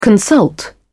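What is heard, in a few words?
"consult", British English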